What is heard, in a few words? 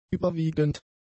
"überwiegend", German; adjectives